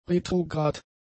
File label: "retrograd", German; adjectives